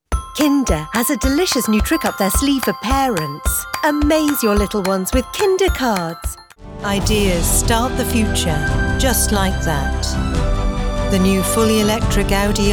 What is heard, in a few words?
Commercial